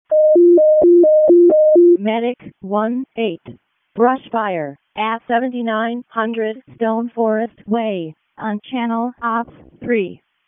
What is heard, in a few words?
EMS ALERT; wake